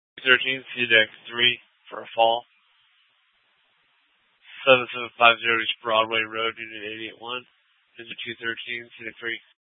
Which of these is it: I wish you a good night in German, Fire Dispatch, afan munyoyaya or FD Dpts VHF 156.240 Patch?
FD Dpts VHF 156.240 Patch